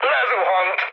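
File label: Hungarian aircraft destroyed mood high, speech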